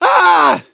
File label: hurt critical, voice; gambler